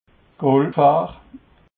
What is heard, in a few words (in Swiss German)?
"goolgfahr", alsace